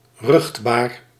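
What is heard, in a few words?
"ruchtbaar", Dutch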